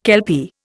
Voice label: "kelpie", Indian